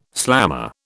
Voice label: "slammer", British

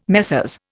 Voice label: "Messrs", Indian

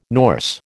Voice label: "Norse", American

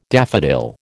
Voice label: "daffodil", American

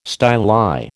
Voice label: "styli", American

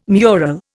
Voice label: "mural", Indian

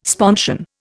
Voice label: "sponsion", Indian

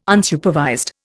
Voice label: "Unsupervised", Indian

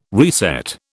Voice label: "reset", British

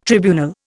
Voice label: "tribunal", Indian

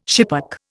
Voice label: "schipperke", Indian

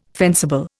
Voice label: "fencible", Indian

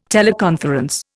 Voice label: "teleconference", Indian

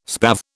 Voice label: "spathe", British

